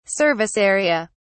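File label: "service area", British English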